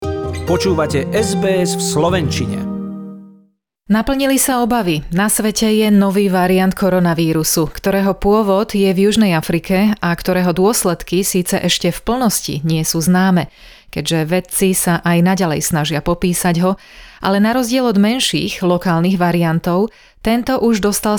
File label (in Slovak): slovak; sbs